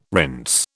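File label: "rinse", British English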